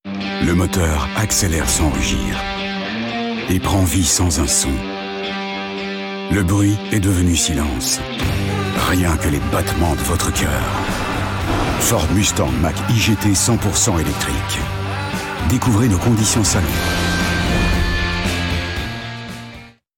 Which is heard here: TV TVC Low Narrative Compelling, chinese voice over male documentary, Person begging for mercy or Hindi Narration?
TV TVC Low Narrative Compelling